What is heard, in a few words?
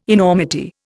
"enormity", Indian